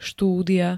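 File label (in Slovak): "štúdia", Slovak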